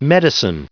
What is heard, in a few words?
"medicine", en-us